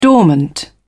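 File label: "dormant", British English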